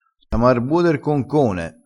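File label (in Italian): "samo ar buo", vocabolario dialettale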